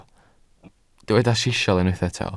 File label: "sisial", welsh